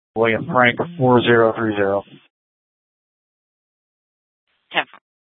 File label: Police South Dispatch